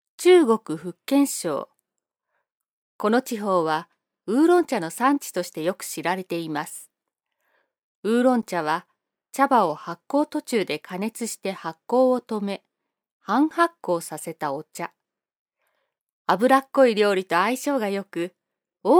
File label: narration